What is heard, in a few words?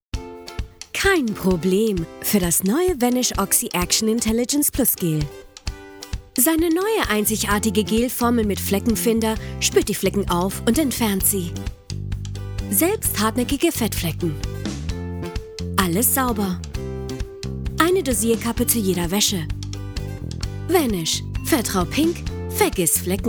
German Commercial Voice Over